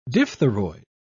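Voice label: "diphtheroid", British English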